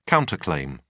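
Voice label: "counter claim", British English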